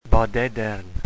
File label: "bodedern", wales